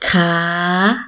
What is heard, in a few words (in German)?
kaa steigender ton, thai